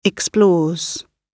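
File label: "explores", British English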